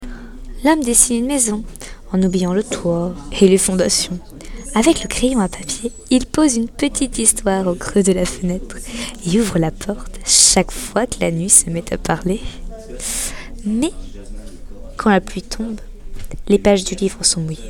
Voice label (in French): Lecture